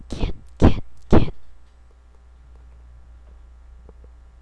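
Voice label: "can", spoken word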